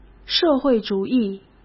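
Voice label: "社会主义", Mandarin Chinese; chinese flashcards; hsk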